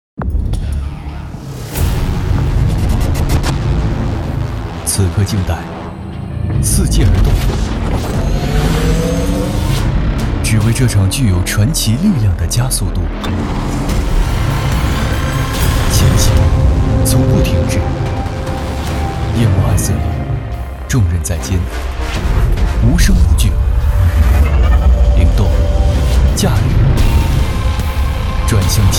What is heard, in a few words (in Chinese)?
男 TVC; 广汽传祺